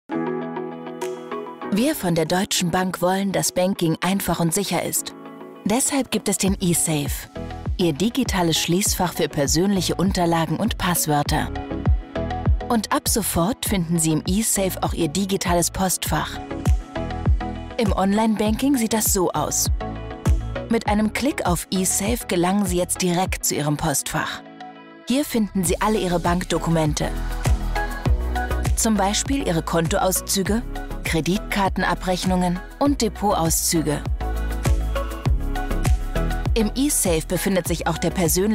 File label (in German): Produktfilm; Deutsche Bank eSafe